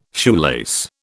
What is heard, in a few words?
"shoelace", British